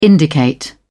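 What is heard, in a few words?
"indicate", British English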